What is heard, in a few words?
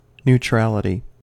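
"neutrality", US English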